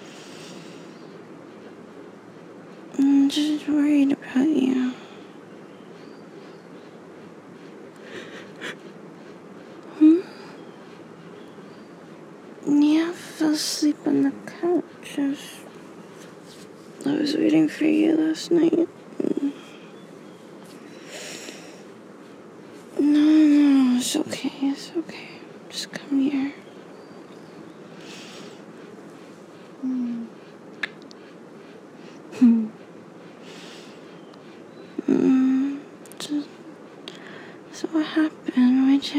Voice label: Rambly, morning sleepy voice, fan, bird and bed; F4A; GFE; Come be ten big spoon; drifting in and out of sleep